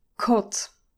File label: "cot", english pronunciation